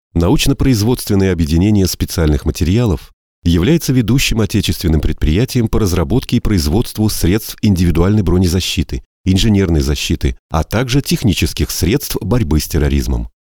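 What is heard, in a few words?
informational zg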